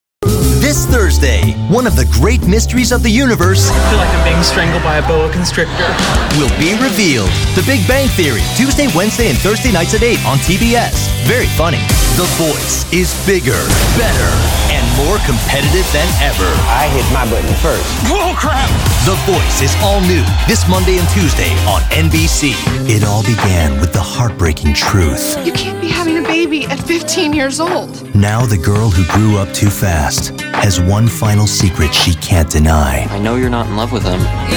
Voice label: Promo

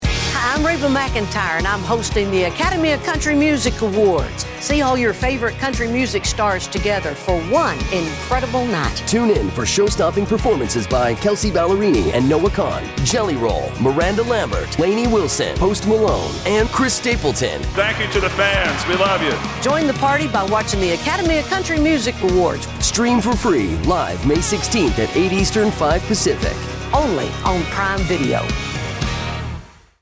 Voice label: radio

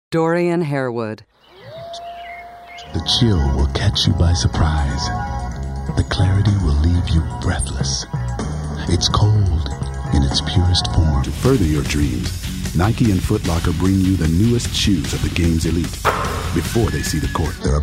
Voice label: Commercial

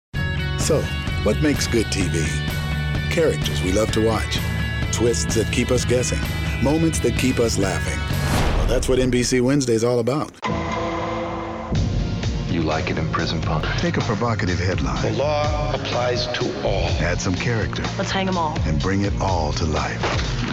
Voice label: Promo

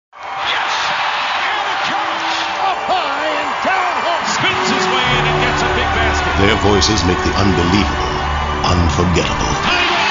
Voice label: narration

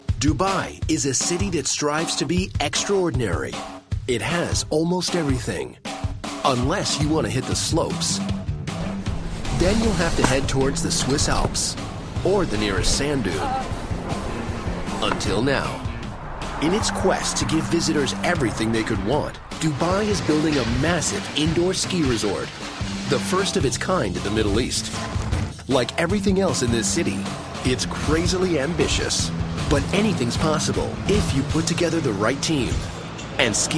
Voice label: Narration

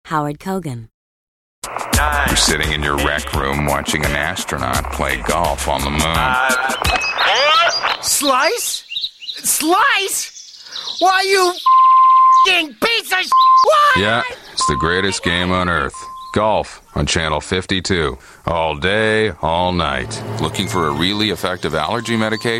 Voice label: commercial

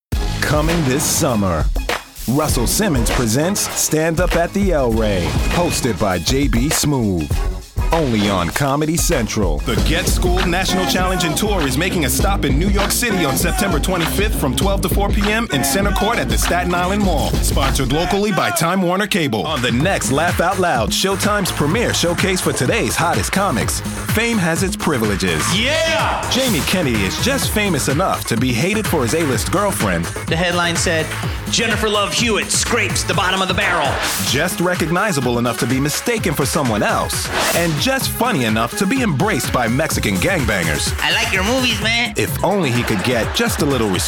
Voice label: promo

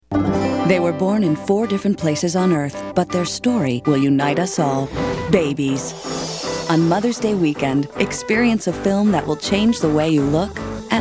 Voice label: trailer

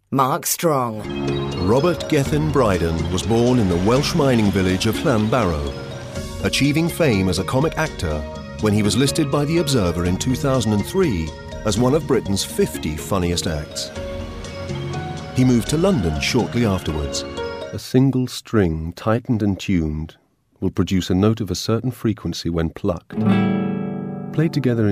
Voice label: narr

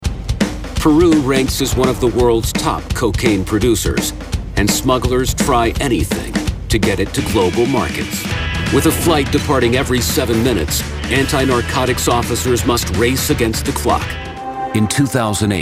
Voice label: Narration

